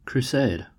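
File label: "crusade", American English; pronunciations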